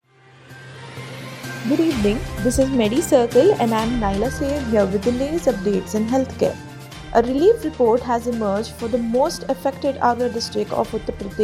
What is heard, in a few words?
english news